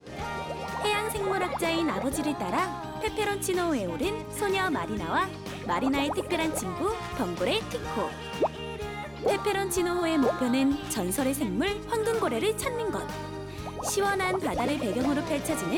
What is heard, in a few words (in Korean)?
스팟 차분하고 따뜻한